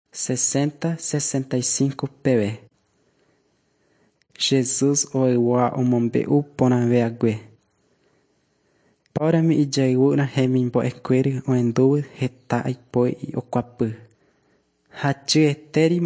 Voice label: john guarani mbya, bible

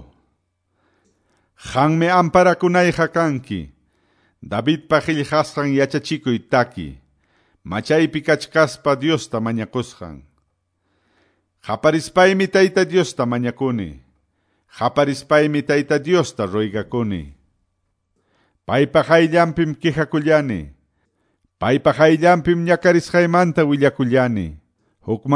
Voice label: salmos, quechua ayacucho

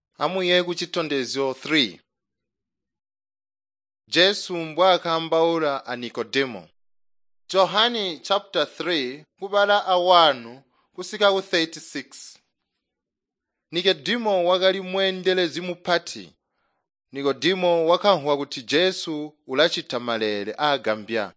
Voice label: tonga plateau, drama; chitonga bsz nt drama

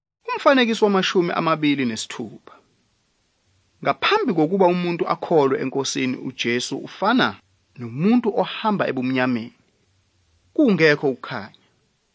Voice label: zulu bible; good news

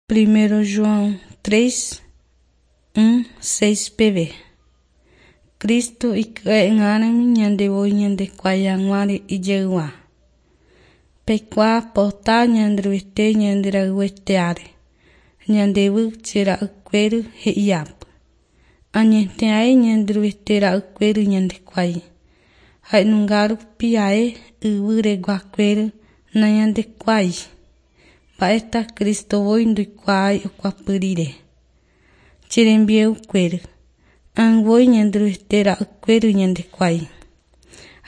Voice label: john guarani mbya, bible

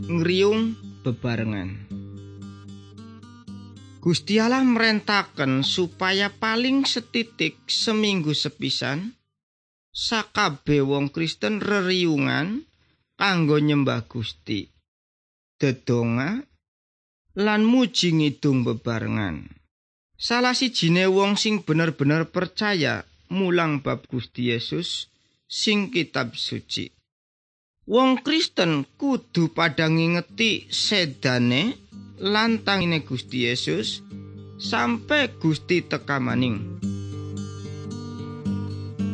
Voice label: good news jawa indramayu, javanese bible

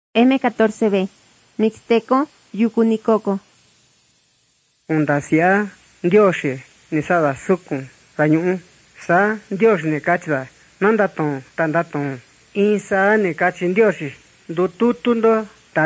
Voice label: mixtec diagnostic spanish mexico

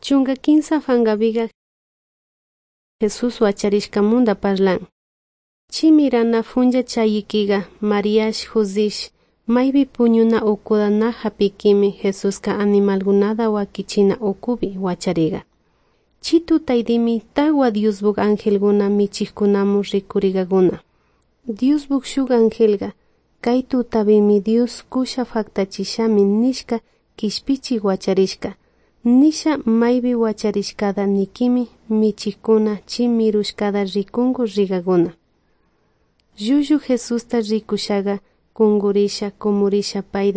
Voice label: good news quichua de salasaca

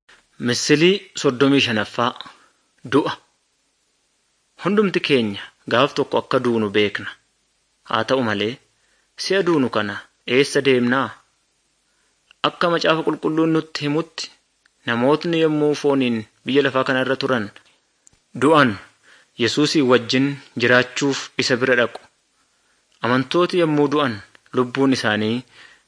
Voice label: good news oromo wellega

